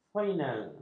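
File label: "final", Malay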